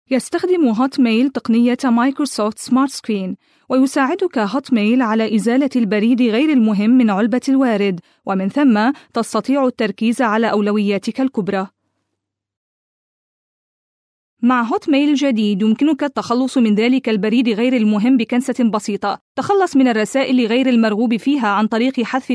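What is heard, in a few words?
female, Arabic, training; voice over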